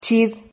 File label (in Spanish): "chid", Silabas